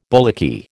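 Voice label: "bullocky", American English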